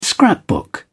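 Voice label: "scrapbook", British English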